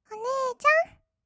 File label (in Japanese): お姉ちゃん, kawaii voice; ASMR; 二人称